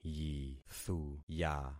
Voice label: "yithuya", concat syllables; Affixing experiment